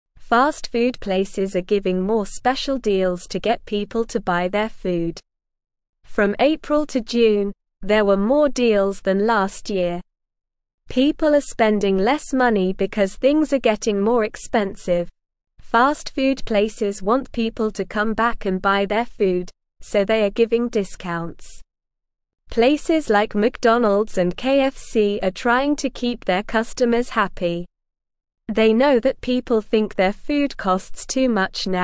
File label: English Lower Intermediate SLOW Reading; Fast Food Places Offer Deals to Attract Customers; English Newsroom